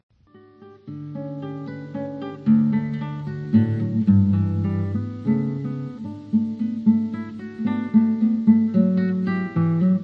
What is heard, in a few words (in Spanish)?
good news spanish mexico